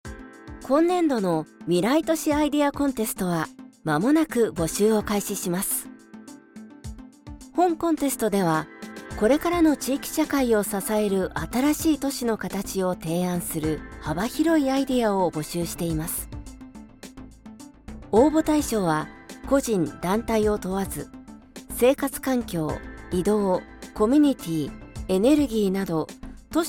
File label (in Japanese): 告知; 未来都市アイディア; VOICE SAMPLE